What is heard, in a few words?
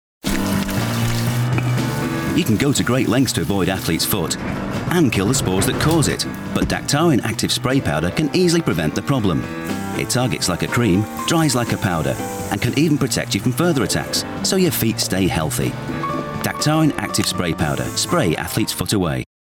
British Voiceover TV Commercial Cheshire Accent; DAKTARIN